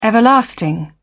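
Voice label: "everlasting", British English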